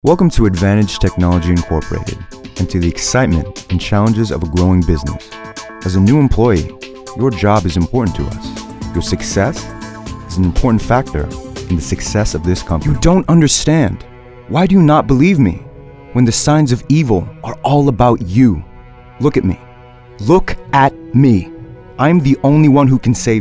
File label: Narration VO